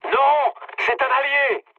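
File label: player friendly fire, mood high, French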